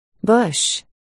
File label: "bush", articulation